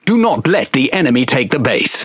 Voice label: Speech Quick Commands Ally Base, EN GB; World Of Warships